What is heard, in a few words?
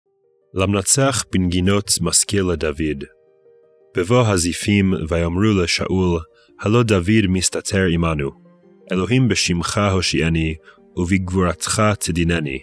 poetry and prayer psalm hebrew